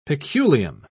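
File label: "peculium", English, British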